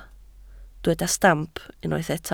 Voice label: "stamp", Welsh

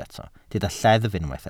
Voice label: "lleddf", Welsh

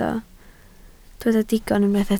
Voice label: "digon" Welsh

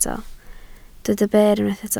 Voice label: "ber", Welsh

